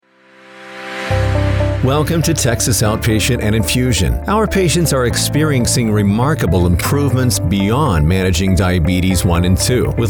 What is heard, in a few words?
Narrative Only; Texas Outpatient and Infusion